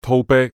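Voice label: "滔啤", Cantonese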